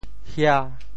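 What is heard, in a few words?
"hia5", Teochew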